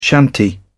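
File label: "shanty", British English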